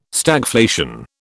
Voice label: "Stagflation", British

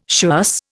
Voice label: "schuss", Indian

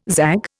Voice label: "zag", Indian

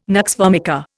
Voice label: "nux vomica", Indian

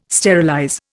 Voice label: "sterilize", Indian

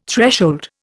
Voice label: "threshold", Indian

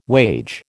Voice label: "wage", American

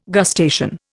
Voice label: "gustation", Indian

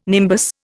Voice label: "nimbus", Indian

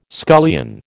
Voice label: "scullion", American

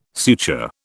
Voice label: "suture", British

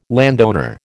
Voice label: "landowner", American

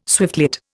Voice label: "swiftlet", Indian

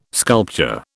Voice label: "sculpture", British

